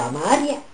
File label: "a madia", lingua